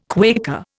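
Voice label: "Quaker", Indian English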